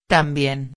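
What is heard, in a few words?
"tambien", Spanish; adverbios; afirmação; gramatica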